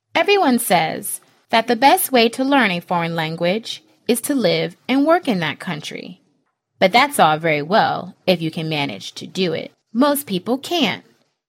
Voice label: voices ENGLISH female adult USA; KER-SOUND